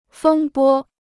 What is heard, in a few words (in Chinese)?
"风波", Chinese